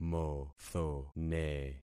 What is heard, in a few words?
"mothone", concat syllables; Affixing experiment